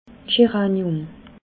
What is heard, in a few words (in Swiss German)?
"scheranium", alsace